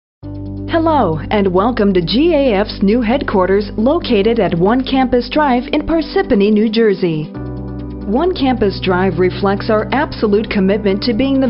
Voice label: 外籍女 科技公司外籍女声录音 产品介绍

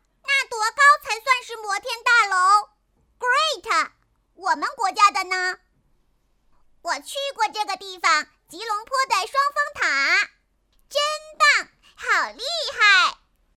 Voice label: 女, 童声, 女孩

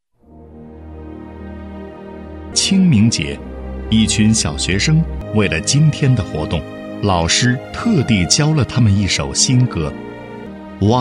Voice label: 男 专题 献给英雄 记录风, 国语男声配音

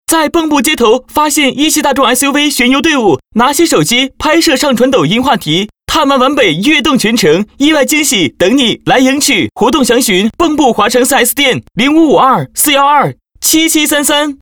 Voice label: 广告 SUV 激情欢快; 一汽大众

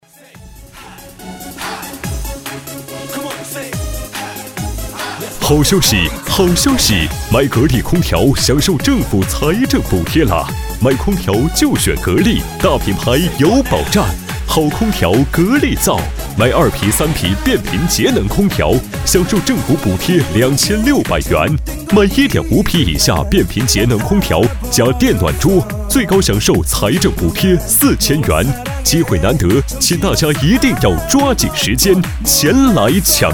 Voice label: 促销广告, 成熟激情; 格力空调

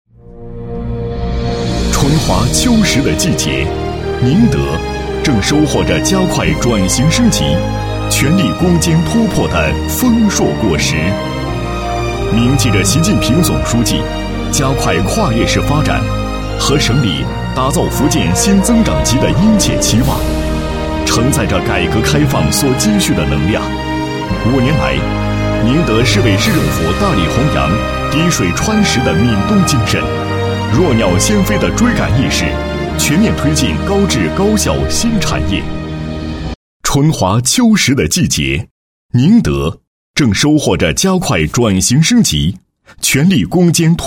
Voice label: 男, 政府党建; 宁德市委市政府

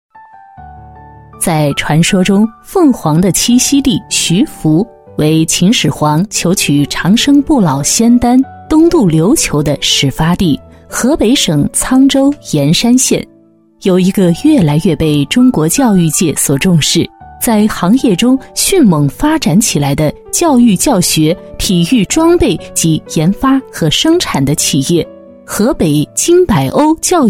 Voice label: 女 企业 大气 稳重, 国语女声配音; 教学设备制作有限公司

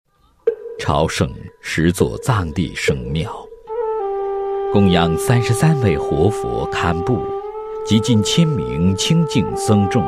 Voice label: 男 宗教; 装藏圣物 殊胜加持

